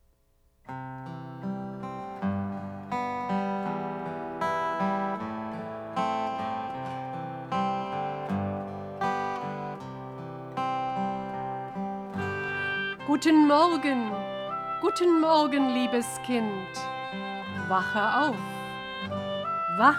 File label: German